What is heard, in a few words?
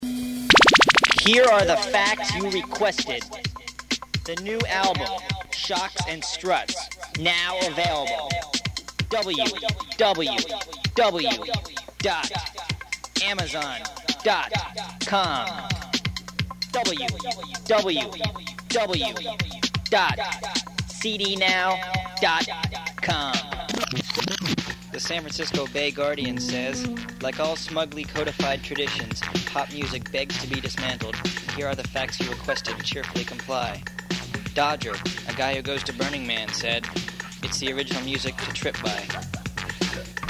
Advertisement; kalx; KALX Live